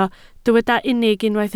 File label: "unig", Welsh